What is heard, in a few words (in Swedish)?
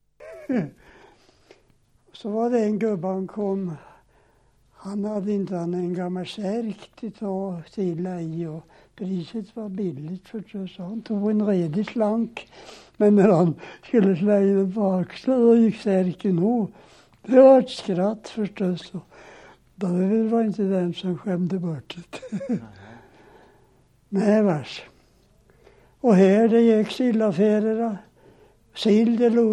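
Viby Östergötland, dialekter; Folkminnen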